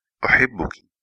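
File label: i love you, are, male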